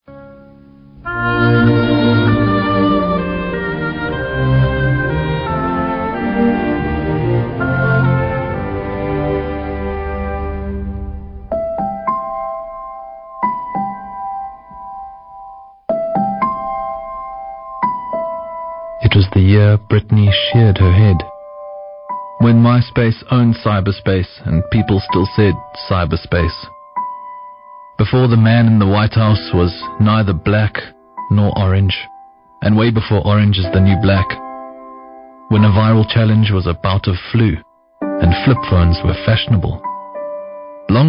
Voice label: Duracell Advert